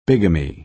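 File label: "bigamy", American English pronunciation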